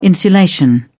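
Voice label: "insulation", British English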